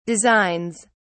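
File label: "designs", British English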